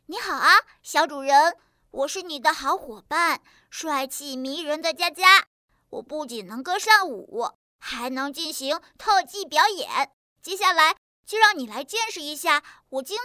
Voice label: 男童

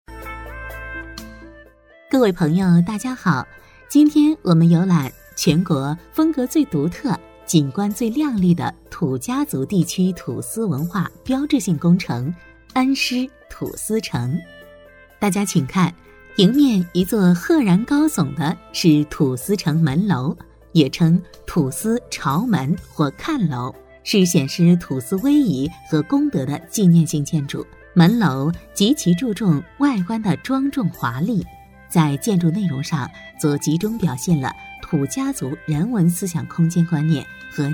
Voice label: 课件导游词解说

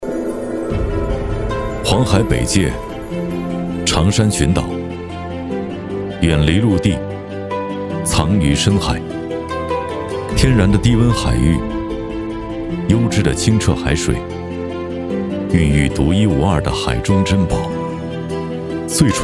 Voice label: 独白

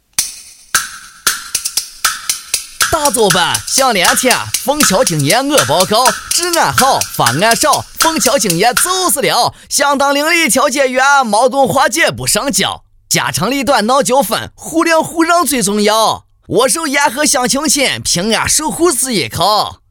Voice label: 陕西话快板

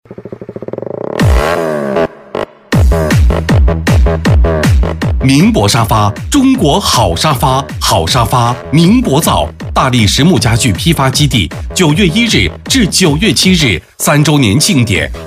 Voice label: 促销

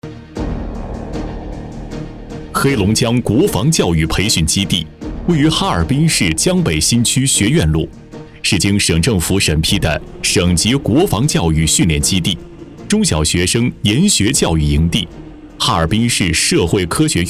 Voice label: 宣传; 黑龙江

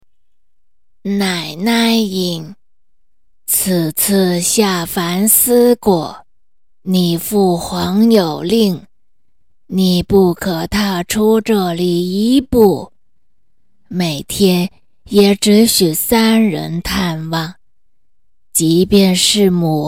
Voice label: 奶奶音

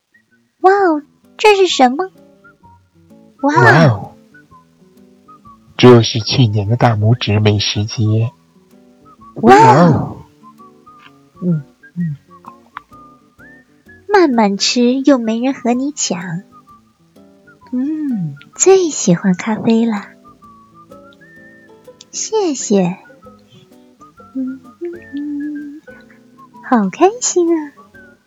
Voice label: 一人分饰三角卡通配音

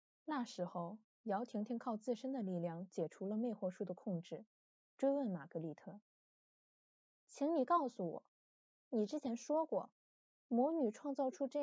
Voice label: 旁白 对话 转换

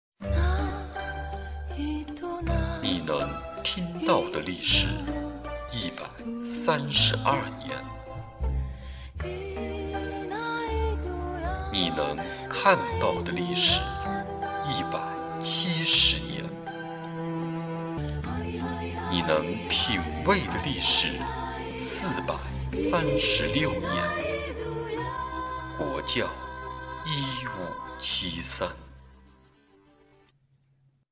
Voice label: 酒类广告

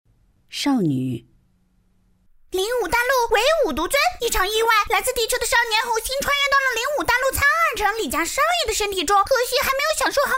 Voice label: 角色音少女

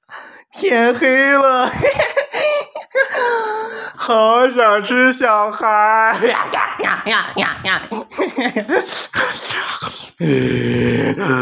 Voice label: 怪物音

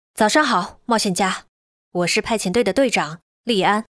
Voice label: 中性风御姐音

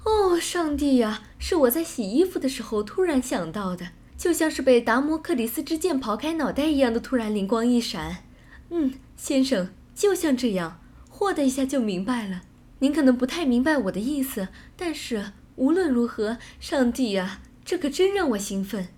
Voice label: 译制腔

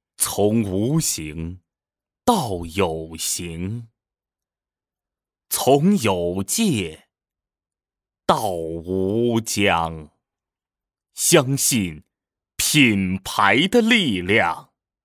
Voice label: 纯干音音频

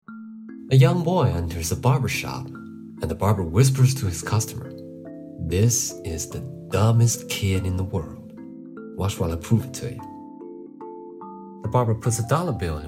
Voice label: 配音试读笑话

